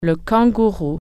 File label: kangaroo, french